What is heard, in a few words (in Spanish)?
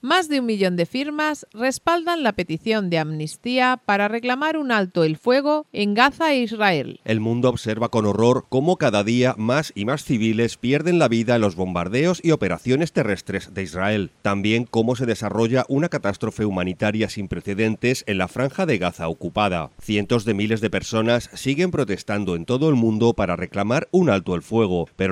informativo; israel gaza peticion alto fuego